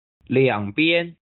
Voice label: "两边", chino

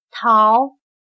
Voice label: "逃", chino

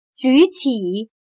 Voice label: "举起", chino

